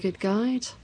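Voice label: "good guiDe", Standard Southern British; gʉd'gɑit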